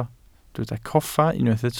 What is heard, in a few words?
"coffa", Welsh